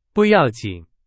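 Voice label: Chinese; hsk4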